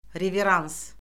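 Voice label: "reverans", Azerbaijani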